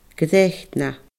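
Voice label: "Greatna", Scottish Gaelic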